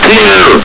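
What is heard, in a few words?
"clear!", male; hl2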